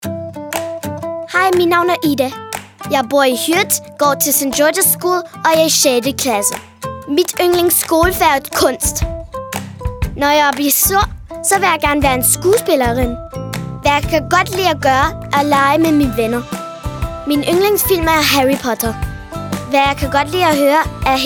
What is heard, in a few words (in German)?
Selbstvorstellung DK